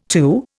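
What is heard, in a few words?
"took", Indian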